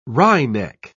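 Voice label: "wryneck", English pronunciation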